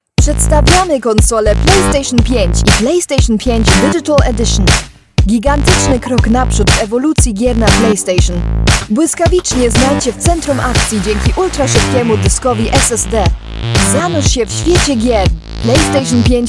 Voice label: female German and Polish Polish commercial compilation